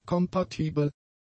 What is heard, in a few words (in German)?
"kompatibel", German; adjectives